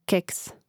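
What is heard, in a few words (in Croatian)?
"keks", Hrvatski; Mrežnik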